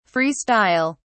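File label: "free style", British English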